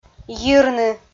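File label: "йирны", Komi, female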